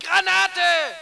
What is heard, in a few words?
ger grenade